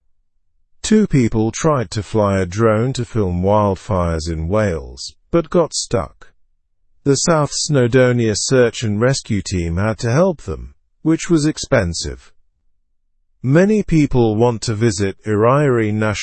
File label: learn english through news lower intermediate slow; avoiding wildfire areas in wales